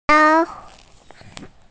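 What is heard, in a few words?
"gao1", Mandarin Chinese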